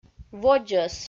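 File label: "водзӧс", Komi, female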